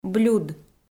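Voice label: "блюд", Komi-Zyrian, female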